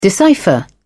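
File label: "decipher", British English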